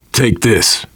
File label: alert give item, survivor voice biker; Left 4 Dead 2